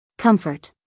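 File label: "comfort", American English, female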